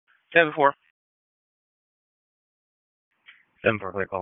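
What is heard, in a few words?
EMS Dispatch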